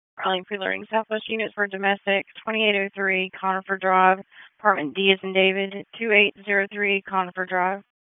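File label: Police Southwest District Disp, radio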